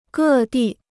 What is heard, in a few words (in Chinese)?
"各地", Chinese